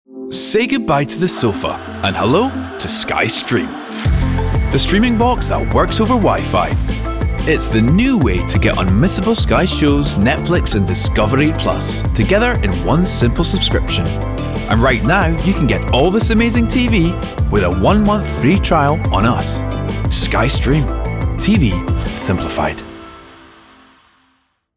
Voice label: VO Reassuring, Cool, Polished; Showreel; Sky